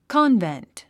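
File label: "convent", English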